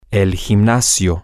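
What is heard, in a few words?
the exercise room, Spanish, male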